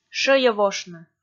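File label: "шӧйӧвошны", Komi, female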